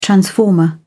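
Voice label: "transformer", British English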